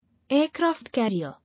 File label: "Aircraft carrier", English